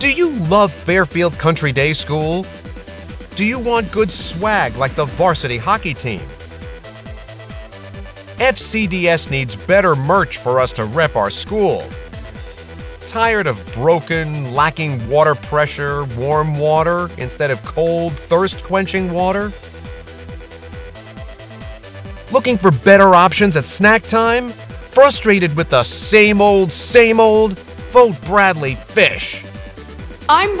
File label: promo message; Fairfield Country Day School